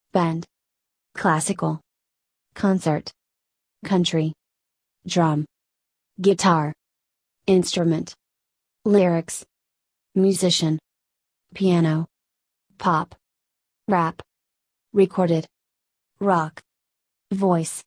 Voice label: USA